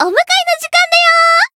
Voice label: encount, jp; ilulu

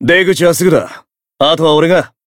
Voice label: Voice variable chant

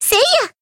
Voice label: attack, jp; pizzica

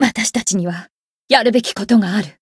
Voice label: win barely, jp; herena

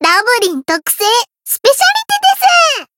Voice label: Voice limitbreak jp; loveli

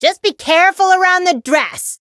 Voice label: Voice encount fear; soira

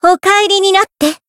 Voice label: finish, jp; sincere

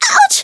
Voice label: Voice damaged; melody

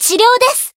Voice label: heal, jp; komachi

